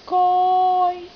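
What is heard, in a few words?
"goi1", Cantonese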